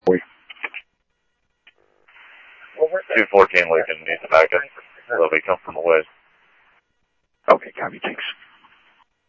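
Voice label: Phoenix police department, scanner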